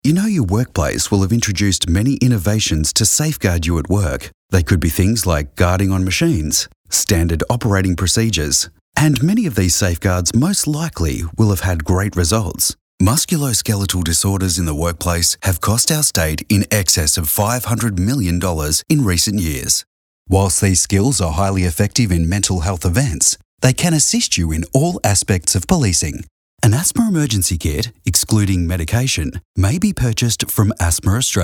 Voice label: corporate

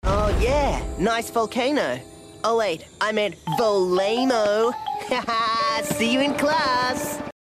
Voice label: Character

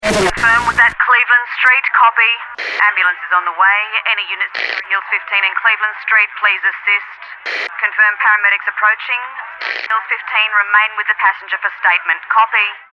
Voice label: EMERGENCY RADIO, voices

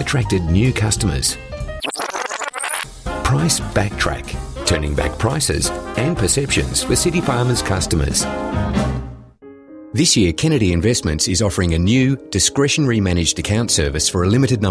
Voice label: Corporate; EM Voices

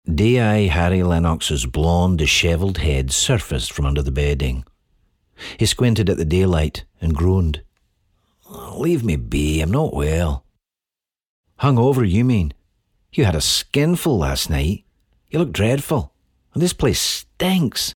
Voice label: Scottish Character

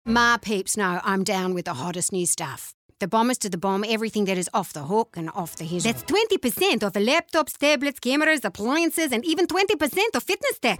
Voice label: Characters, voices; Ebay

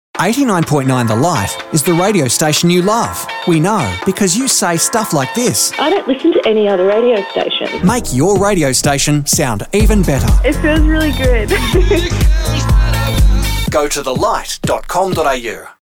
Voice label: Radio Promo

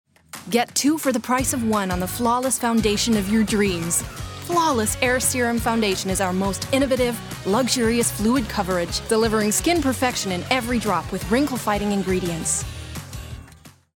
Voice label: US Accent; Foundation

